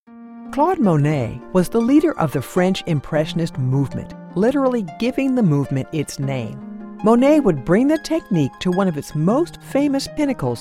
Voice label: Narration